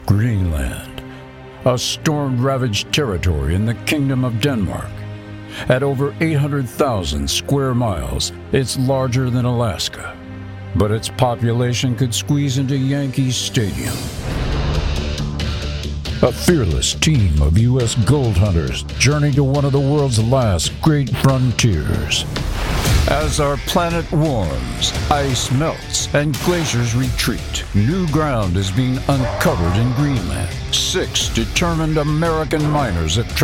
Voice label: Documentary

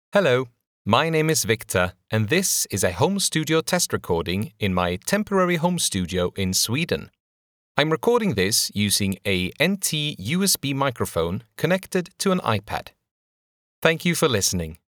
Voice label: sample of temporary home studio gate comp EQ

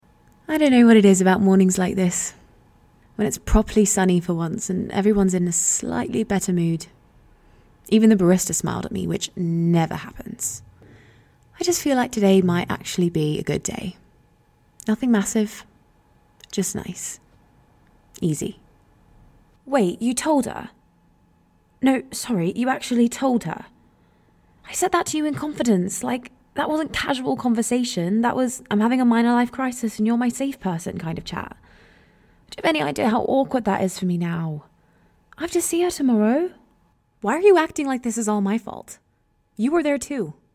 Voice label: Drama

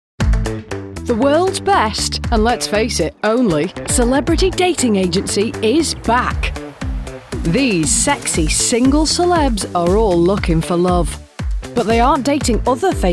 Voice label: Documentary

